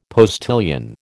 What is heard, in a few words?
"postilion", American